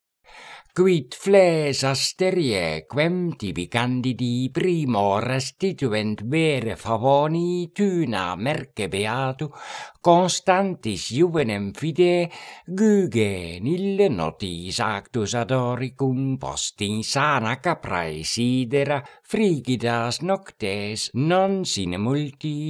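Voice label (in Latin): Horatii carmina quae voce canora pronuntiat; Quid fles Asterie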